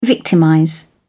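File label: "victimize", British English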